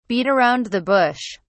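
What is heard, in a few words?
"beat around the bush", British English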